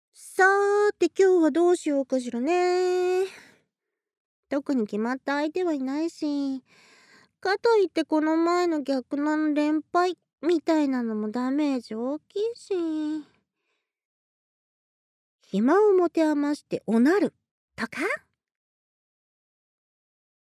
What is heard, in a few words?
Whisper, ASMR